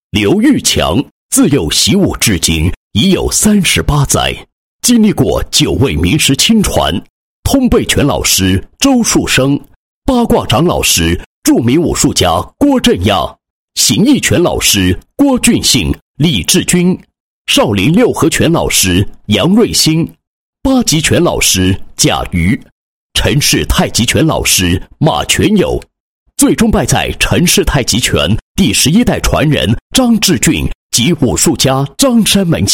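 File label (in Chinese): 男-人物-武林名师介绍, 配音案例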